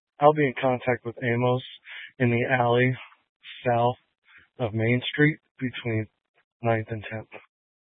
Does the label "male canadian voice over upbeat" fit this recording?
No